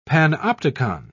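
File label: "panopticon", British English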